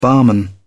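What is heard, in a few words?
"barman", British English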